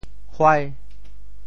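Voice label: "huai5", Teochew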